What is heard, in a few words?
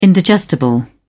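"indigestible", English, British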